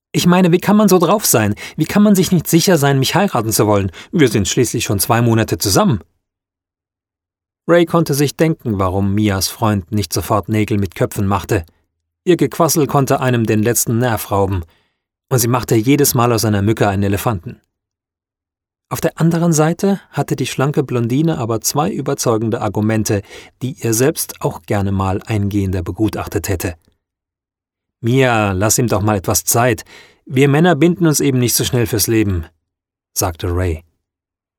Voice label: Audiobook; Hörbuch